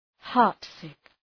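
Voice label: "heartsick", English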